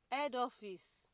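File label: "head office", Nigerian Pidgin, female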